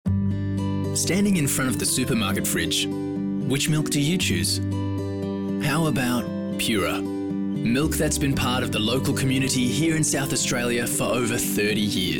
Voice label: GUY NEXT DOOR; PURA MILK